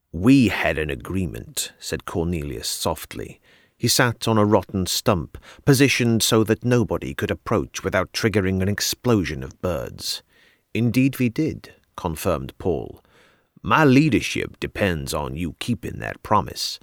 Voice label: southern us